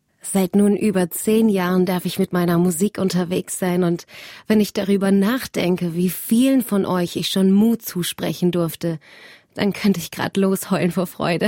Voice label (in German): Kommentar